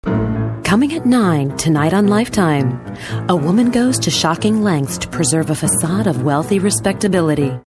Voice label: ominous